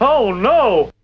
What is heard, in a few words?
"oh no" cartoon